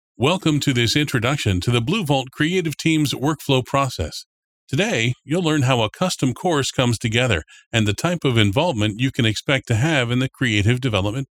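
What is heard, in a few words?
E Learning; Voice Creative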